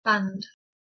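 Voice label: "band", English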